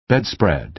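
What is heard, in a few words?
"bedspread", American English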